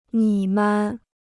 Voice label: "你们", Chinese

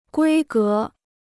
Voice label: "规格", Chinese

